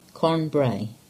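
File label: "Carn Brea", English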